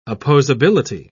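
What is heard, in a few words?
"opposability", British English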